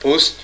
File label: "out", dog